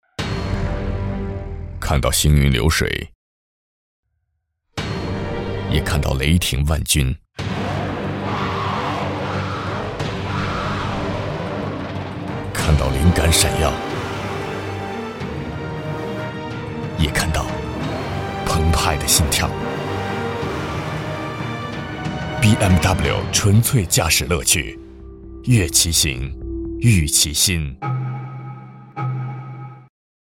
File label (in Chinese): 男 广告 科技 磁性; BMW 4系TVC